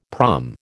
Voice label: "prom", American